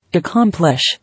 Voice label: "Accomplish", English